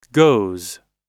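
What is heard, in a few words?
"goes", American English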